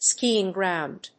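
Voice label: "skiing ground", English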